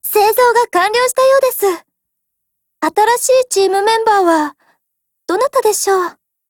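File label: build over, Japanese; 少女前线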